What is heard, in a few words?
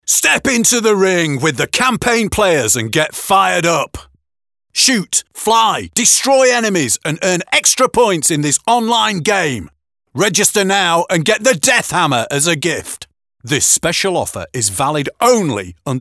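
English male Rage, vo examples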